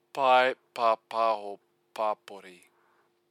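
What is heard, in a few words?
"pae papaho papori", Māori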